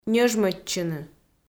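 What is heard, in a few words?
"ньӧжмӧдчыны", Komi, female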